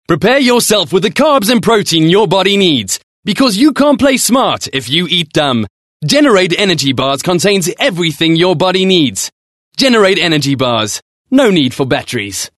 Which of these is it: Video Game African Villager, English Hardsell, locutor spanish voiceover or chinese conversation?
English Hardsell